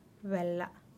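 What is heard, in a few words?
"belaa", Teotitlán del Valle Zapotec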